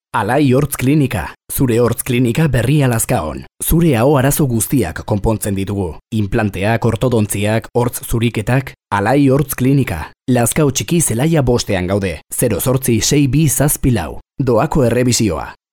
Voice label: locutor vasco basque voiceover

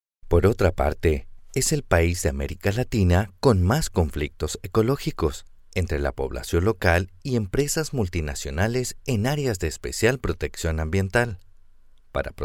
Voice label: locutor espanol neutro latin american neutral spanish voiceover; locutor de español neutro

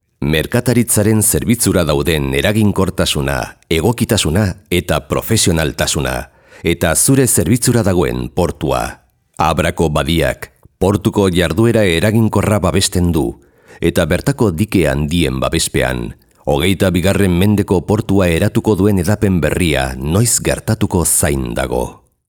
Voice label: locutor euskera

